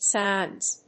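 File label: "SINEs", English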